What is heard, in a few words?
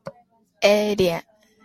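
"alien", Japanese, female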